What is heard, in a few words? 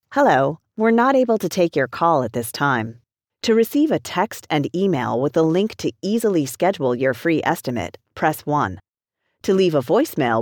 After Hours IVR